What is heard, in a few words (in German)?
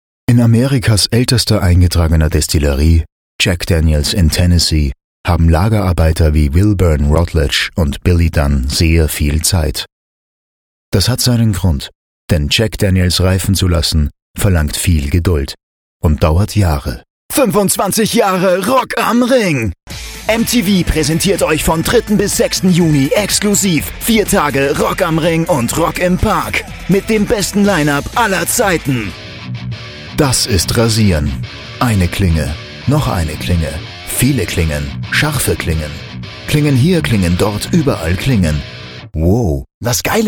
Werbung Compilation